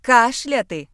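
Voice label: cough, Ukrainian; gcompris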